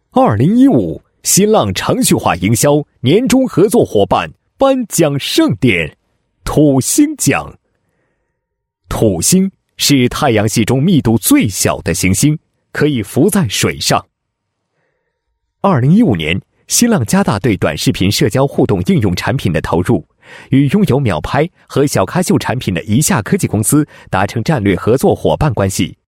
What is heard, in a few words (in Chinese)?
男 颁奖; 2015新浪程序化营销年终合作伙伴颁奖视频